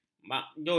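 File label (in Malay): "makjun", Malay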